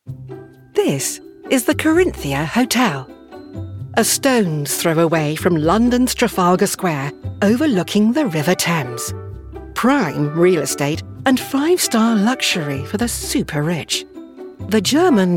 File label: Narration